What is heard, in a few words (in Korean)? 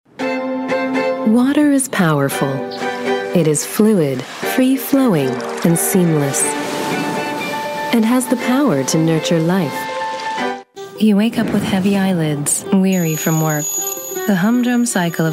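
미국영어 신뢰/묵직; Voice actor sample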